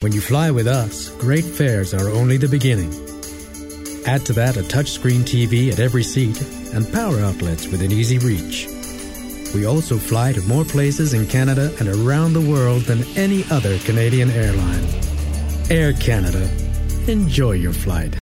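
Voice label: English Canadian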